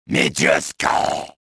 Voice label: victory, trandoshan; XBOX

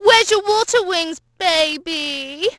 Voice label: wings, Brit; Splashdown; XBOX

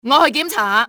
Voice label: im going to check, cantonese; XBOX; enemy

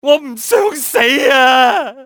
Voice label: dont wanna die, male; XBOX; civ

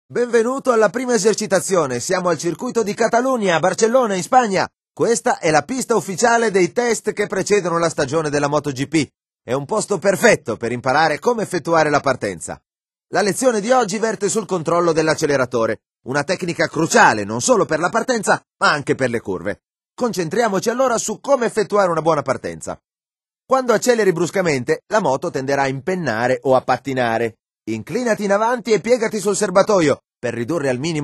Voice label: throttle italian, speech; MotoGP 3

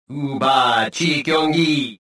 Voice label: detected, rodian

